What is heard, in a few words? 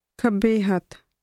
leftside, punjabi; English: left side